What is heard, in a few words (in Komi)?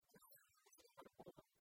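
кывсӧ ньылыштӧмӧн олӧ, Komi, female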